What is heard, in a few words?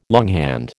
"longhand", American